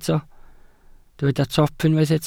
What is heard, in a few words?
"top", Welsh